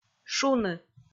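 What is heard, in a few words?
"шуны", Komi, female; kpv audio dict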